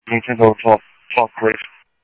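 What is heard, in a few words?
Police Department, scanner audio; SCTSDLE PD - PD2; H-Scottsdale; ScottsdalePolice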